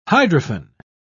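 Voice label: "hydrophane", British English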